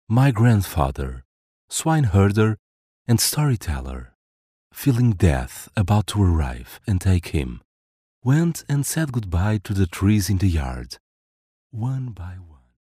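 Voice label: Portuguese English male audiobook; accent of portugal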